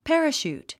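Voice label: "parachute", English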